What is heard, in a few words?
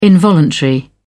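"involuntary", British English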